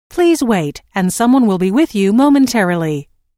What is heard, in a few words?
Telephone prompt: Please wait and someone will be with you momentarily, Recorded Voice; Pre-recorded telephone on-hold message